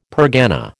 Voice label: "pergana", American

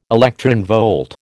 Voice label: "electronvolt", American

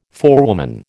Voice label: "forewoman", American

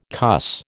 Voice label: "cuss", American

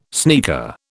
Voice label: "sneaker", British

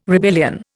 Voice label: "rebellion", Indian

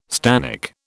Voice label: "stannic", British

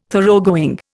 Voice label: "Thoroughgoing", Indian

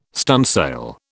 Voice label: "stunsail", British

